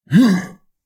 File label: guard male; SyrinScape; Waterdeep